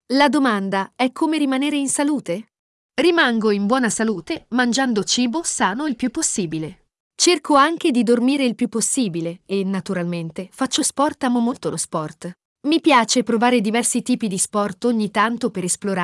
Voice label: Audiobook Voice